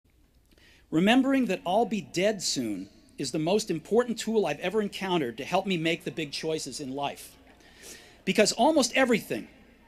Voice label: Commencement Address; Steve Jobs; 2005; Stanford